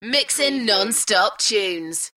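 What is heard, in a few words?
UK female, DJ vocals; Mixin non-stop tunes; beanstalk audio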